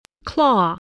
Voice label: "claw", voice

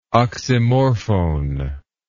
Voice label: "oxymorphone", English

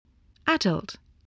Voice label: "adult", English voice

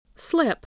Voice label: "slip", voice, spelling

